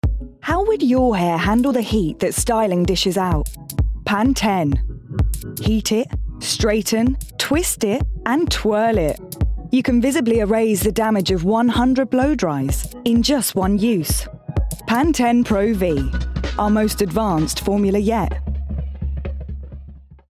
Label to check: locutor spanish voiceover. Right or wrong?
Wrong